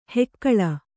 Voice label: "ಹುಗುಳು", Kannada